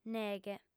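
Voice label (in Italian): "neghe", lingua sarda, fem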